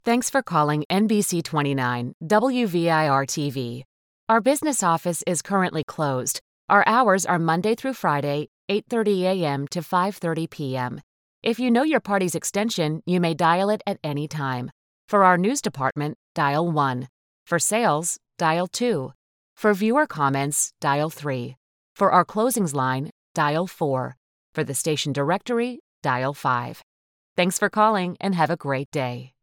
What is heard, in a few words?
IVR